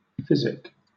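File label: "physic", British English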